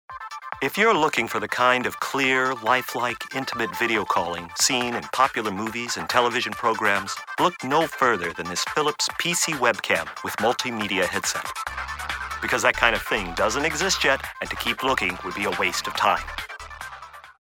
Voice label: Edgy Techno Advertisement